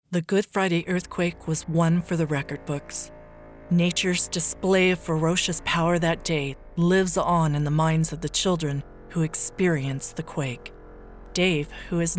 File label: Documentary